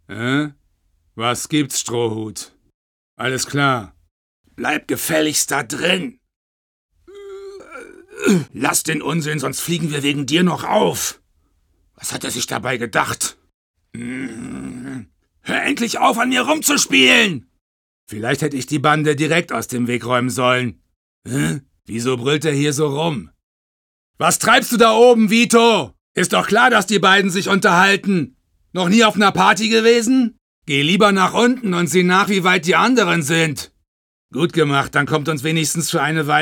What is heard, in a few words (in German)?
Anime